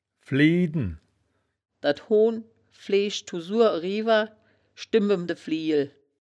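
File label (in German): "flieden", wittlicher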